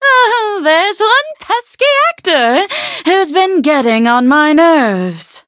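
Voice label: loading, English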